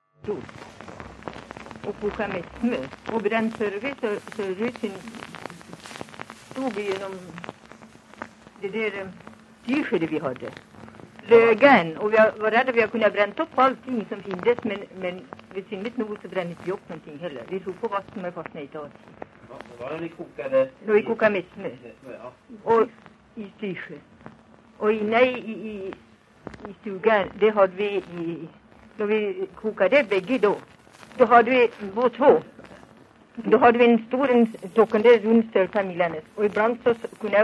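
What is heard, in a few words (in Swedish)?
Järbo Gästrikland, Svenska sagor, dialekter; Folkminnen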